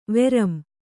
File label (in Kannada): "ವರಂ", Kannada